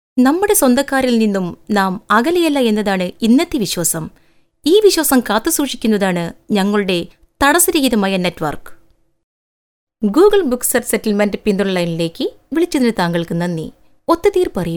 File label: Malayalam Female